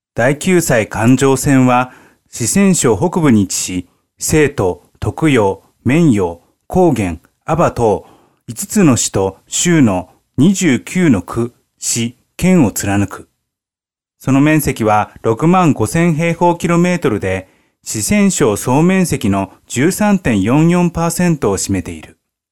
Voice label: japanese m commercial; voice demos